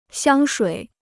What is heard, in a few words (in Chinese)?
"香水", Chinese